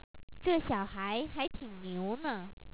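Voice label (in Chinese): "zhe xiao haihai ting niu ne", Chinese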